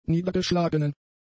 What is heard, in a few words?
"niedergeschlagenen", German; adjectives